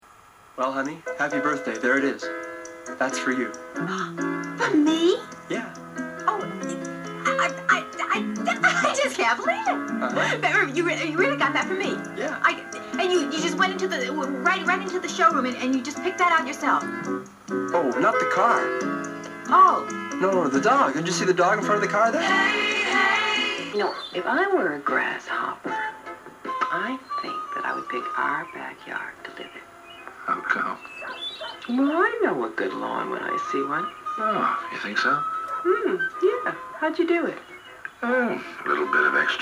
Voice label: voiceover